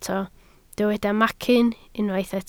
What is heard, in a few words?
"macyn", Welsh